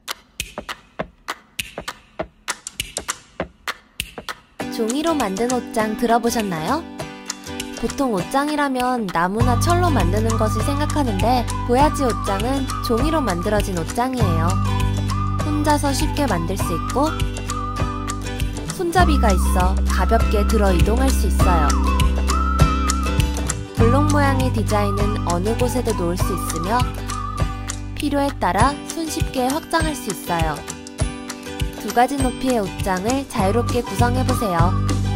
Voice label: 광고 내레이션 일반인 톤; 페이퍼팝

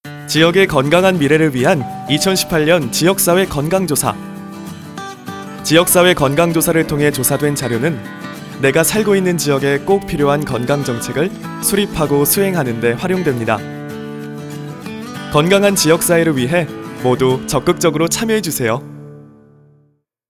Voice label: 남 홍보 캠페인 기본톤 친절한 신뢰감있는; KBS

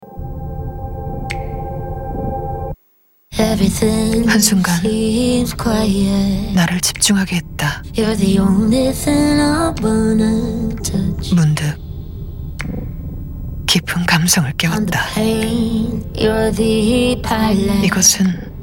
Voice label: 광고 낮고 속사이듯 고급진 자동차

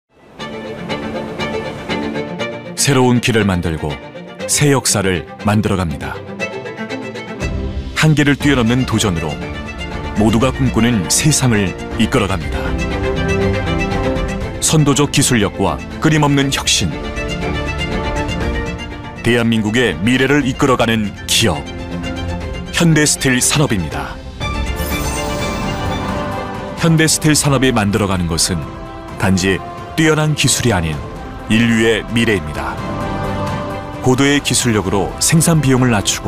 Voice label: 내레이션 신뢰감; 현대스틸산업

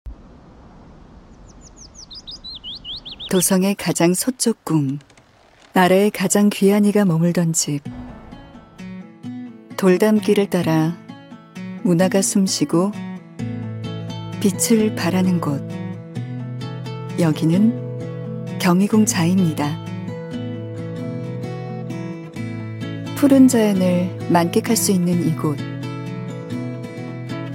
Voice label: 광고 따뜻하고 기품있는 느낌; 경희궁 자이

